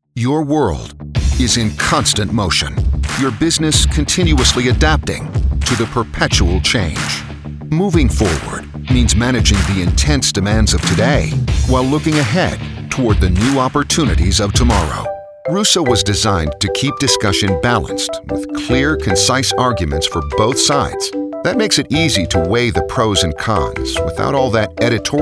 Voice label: corporate, voice; Corporate Video Demo